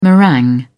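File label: "meringue", British English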